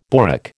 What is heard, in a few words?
"borak", American